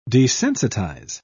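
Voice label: "desensitise", British English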